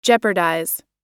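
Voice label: "jeopardize", American English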